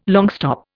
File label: "longstop", Indian